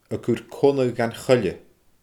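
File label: "a cur connadh dhan choille", Scottish Gaelic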